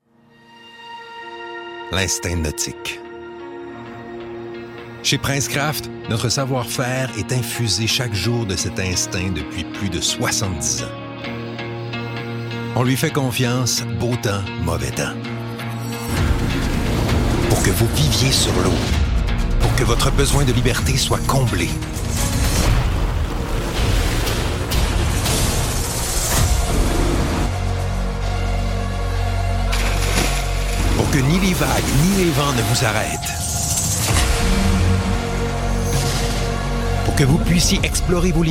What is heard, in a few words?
french canadian automotive